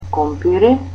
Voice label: "potatoes", Macedonian

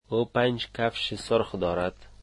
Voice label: she has five red shoes, Dari

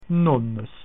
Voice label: "ninth", Latin

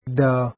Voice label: arabic alphabet daal

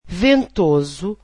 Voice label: "windy", Portuguese

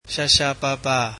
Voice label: "rarely", Burmese

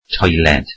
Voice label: "toilet", Welsh

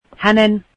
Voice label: finnish her

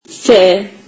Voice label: turkish alphabet f

